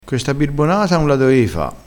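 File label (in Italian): "birbonata", vocabolario dialettale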